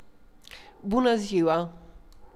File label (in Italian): saluti, rumeno; frasi utili